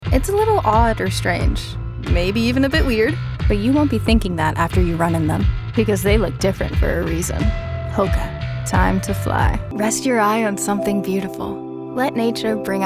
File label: Commercial Demo